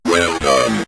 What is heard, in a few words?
well done, synth voice; Kenney's Sound Pack